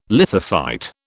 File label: "lithophyte", British